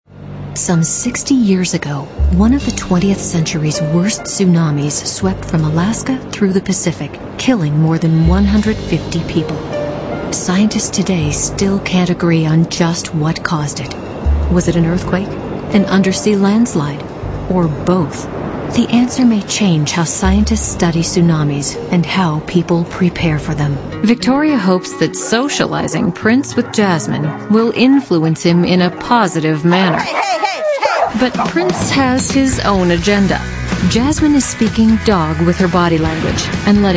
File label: female narration